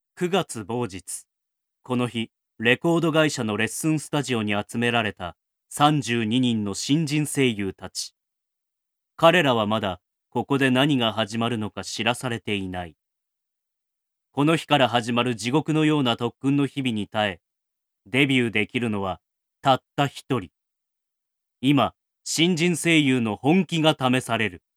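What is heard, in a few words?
male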